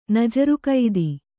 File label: "ನಜರುಕೈದು", Kannada